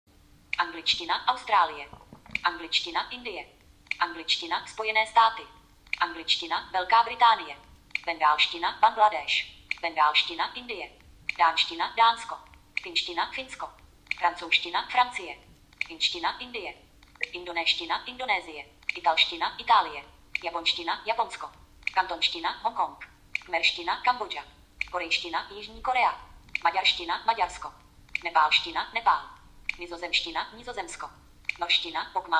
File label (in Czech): google hlas android